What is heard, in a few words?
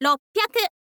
"roppyaku", info girl voice